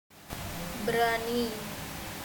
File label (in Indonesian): suara manusia berani; Pengusir Hama Burung Otomatis; human